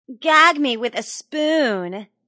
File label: Wmn Sys Gag Me Spn, Woman Says Gag Me With The Spoon; Talking Sound Effects